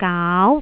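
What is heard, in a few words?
"gaau2", Cantonese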